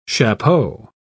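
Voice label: "chapeau", British English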